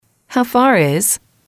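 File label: how far is, English, female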